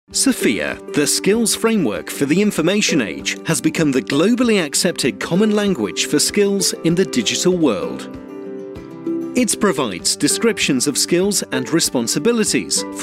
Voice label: VOICEOVER